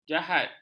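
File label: "jahat", Malay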